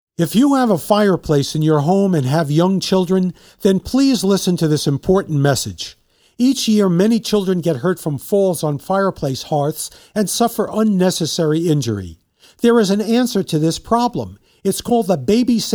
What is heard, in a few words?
radio ad